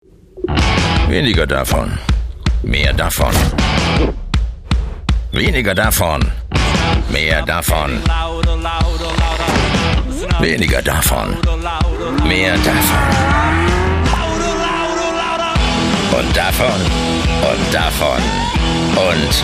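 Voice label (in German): Werbung Cool; DMAX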